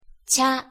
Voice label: "茶", Japans; thee-in-andere-talen

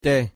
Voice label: "te", Papiaments; thee-in-andere-talen

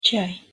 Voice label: "чай", Mari; thee-in-andere-talen

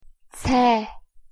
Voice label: "цай" Mongools; thee-in-andere-talen

